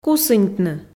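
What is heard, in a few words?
"кусыньтны", Komi, female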